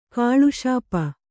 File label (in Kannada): "ಕುಲೆಸೊಪ್ಪು", kannada